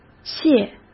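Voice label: "泻" Mandarin Chinese; hsk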